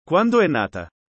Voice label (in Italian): chiedere formale, italiano